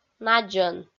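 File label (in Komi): "надзон", Komi, female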